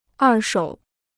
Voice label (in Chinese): "二手", Chinese